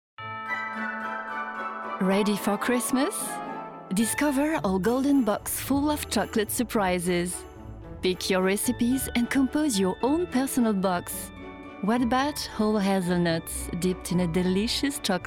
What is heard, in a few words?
EN Mix, Voix Off